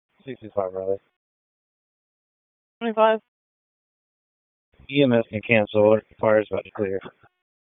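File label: Police Southwest District Dispatch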